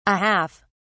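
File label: "a half", British English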